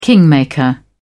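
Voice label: "kingmaker", British English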